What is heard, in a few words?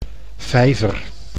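"vijver", Dutch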